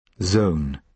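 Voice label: "zone", British English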